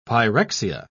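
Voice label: "pyrexia", British English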